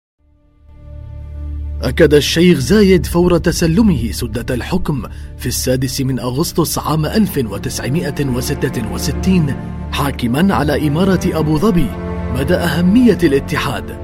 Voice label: Arabic Corporate Narrations